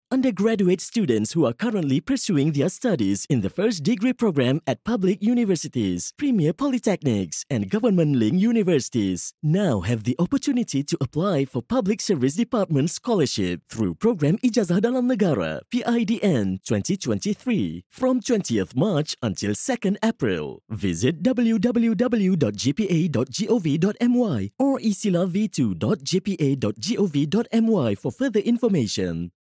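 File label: Malay, English accented; GoLocalise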